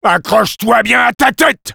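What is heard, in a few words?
taunts, French; Sniper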